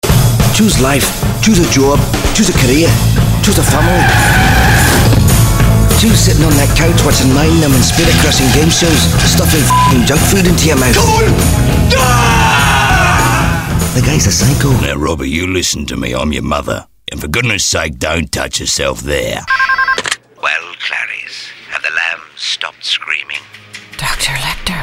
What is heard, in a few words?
character